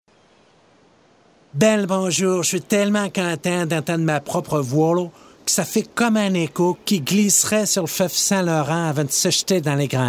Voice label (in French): Medley accents